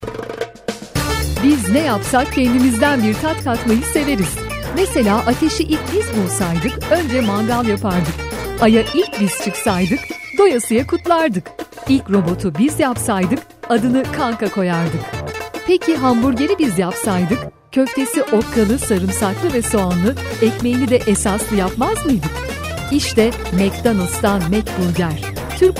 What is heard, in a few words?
Commercial